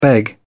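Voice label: "beg off", en, uk British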